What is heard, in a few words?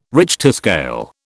"Richter scale", British